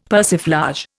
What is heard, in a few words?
"persiflage", Indian; Dictionary